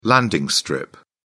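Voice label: "landing strip", British English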